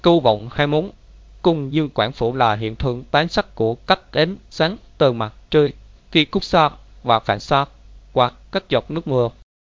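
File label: speaker, American English; piper-voices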